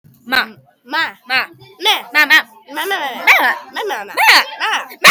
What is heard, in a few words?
ma arguing